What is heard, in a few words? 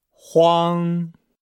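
"huang", Chinese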